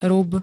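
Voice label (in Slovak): "rub", Slovak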